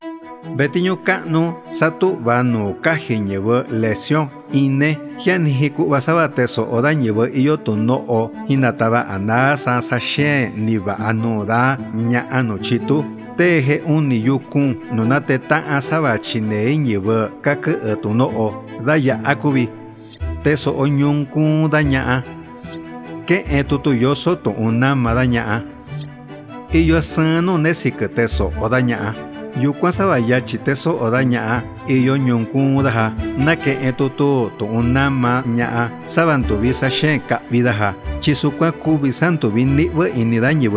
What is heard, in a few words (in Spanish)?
MIXTECO